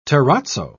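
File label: "terrazzo", English, British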